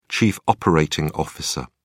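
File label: "chief operating officer", British English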